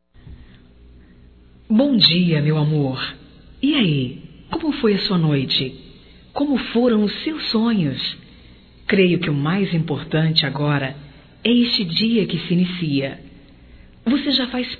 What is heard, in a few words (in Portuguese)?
BOM DIA ROMâNTICO, fem, Telemensagens; Bom dia, tarde e noite; Telemensagens 2017